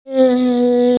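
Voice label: "le4", Mandarin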